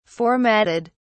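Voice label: "formatted", English, British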